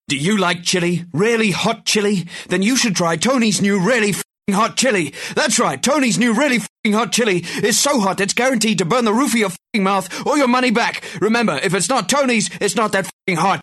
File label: English Hard Sell